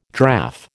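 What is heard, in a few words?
"draff", American English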